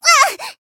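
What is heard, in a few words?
damaged, Japanese; myrus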